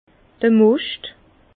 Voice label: "moscht", Bas Rhin

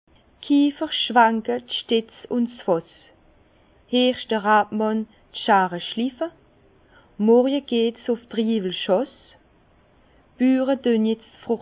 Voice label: kieferschwenke, Bas Rhin

